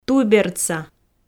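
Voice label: "туйбердса", Komi, female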